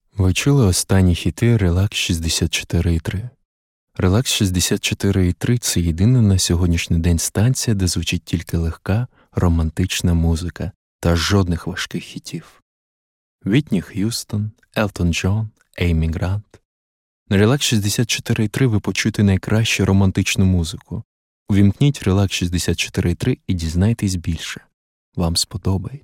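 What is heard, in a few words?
Ukrainian, commercial; GoLocalise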